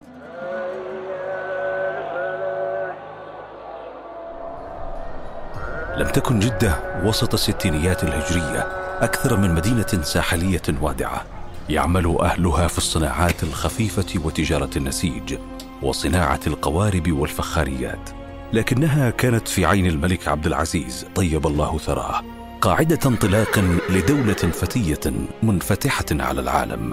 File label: arabic documentaries